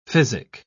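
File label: "physic", British English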